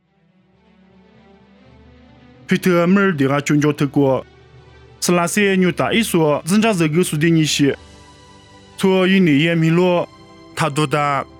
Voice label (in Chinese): 凉山彝语; 以色列吕大; nuosu